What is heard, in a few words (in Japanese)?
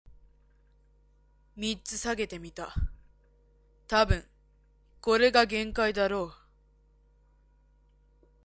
onna